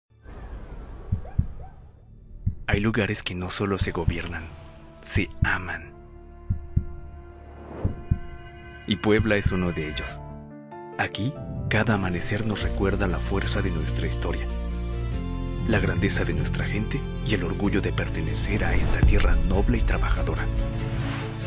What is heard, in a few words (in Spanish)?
locucion institucional natural; Demo comerciales